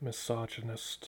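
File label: "misogynist", US English; pronunciations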